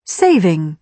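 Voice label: "saving", British English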